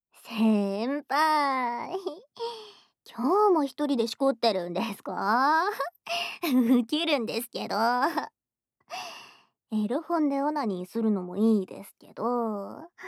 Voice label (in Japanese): 販促ボイス